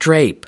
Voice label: drape, us